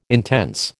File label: "intense", American